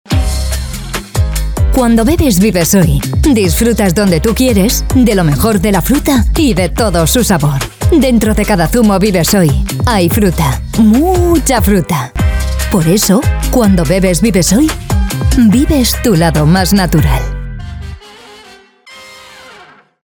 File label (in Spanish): Spot TV